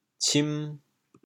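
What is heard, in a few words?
"cim2", Teochew, chaoyang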